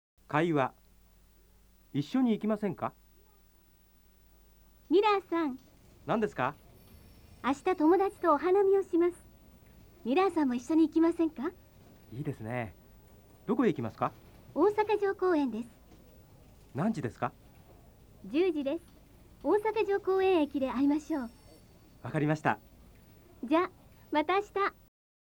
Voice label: nihongo dialog, jap